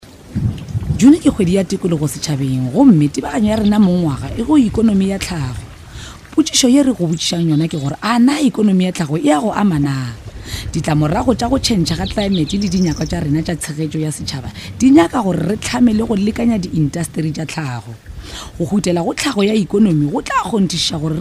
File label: northern sotho; Sesotho sa Leboa; National Environment Month 2012